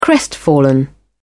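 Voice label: "crestfallen", British English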